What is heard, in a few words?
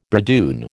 "bridoon", American